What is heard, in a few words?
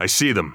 radio enemy spotted, heavy; vo; Counter Strike Model Pack; CS:GO; phoenix coop